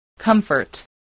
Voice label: "comfort", voice

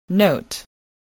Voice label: "note", voice, spelling

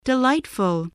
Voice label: "delightful", English

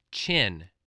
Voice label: "chin", English, voice

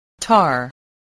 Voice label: "tar", English

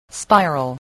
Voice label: "spiral", English voice

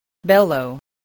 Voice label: "bellow", spelling

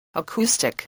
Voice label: "acoustic", spelling

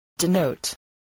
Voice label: "denote", spelling

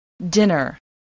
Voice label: "dinner", english spelling

